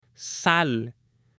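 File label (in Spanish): "SAL", Spanish; ALIMENTOS; AppLenguaje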